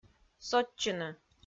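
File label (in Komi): "сотчыны", Komi, female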